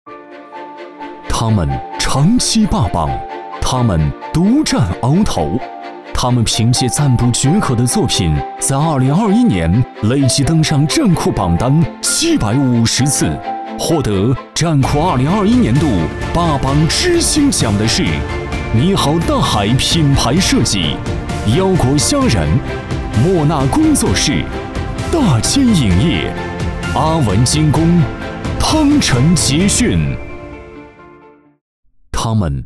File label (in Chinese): 男 专题 大气颁奖